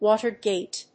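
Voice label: "Watergate", English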